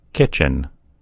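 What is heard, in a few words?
"kitchen", American English; gcompris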